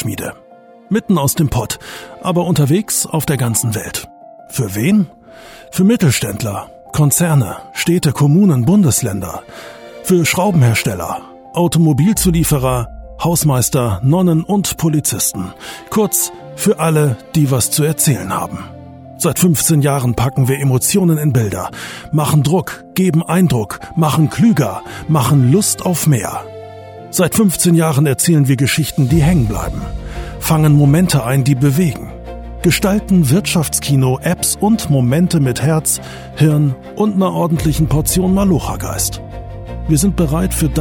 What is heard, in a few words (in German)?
Imagefilm